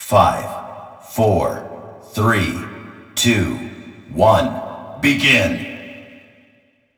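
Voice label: pa voice countdown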